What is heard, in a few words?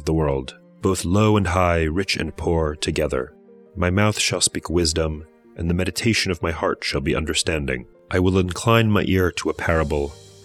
poetry and prayer psalm english